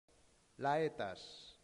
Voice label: "laetas", latin; santillana

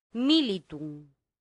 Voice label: "militum", latin; santillana

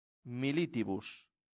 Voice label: "militibus", latin; santillana

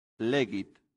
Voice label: "legit", latin; santillana

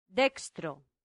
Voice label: "dextro", latin; santillana

